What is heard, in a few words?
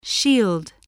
"shield", voice spelling